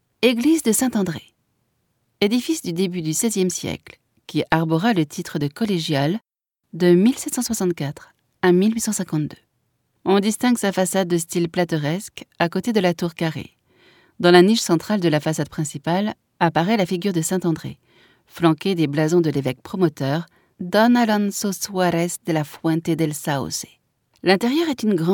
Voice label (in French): audioguia, frances; audioguia baeza